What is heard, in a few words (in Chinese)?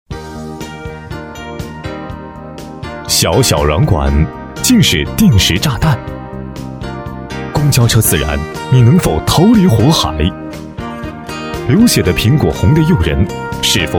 男 节目导视 大气 沉稳